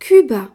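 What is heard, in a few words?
"cuba", French; gcompris-data; geography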